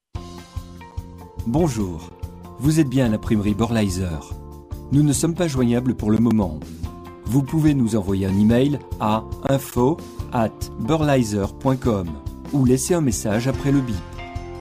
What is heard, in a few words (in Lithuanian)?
VYR Prancuzu balsas, vyras